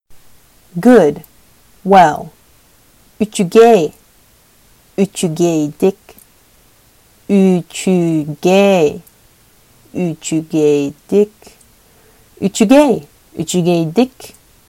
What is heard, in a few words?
Sakha Good well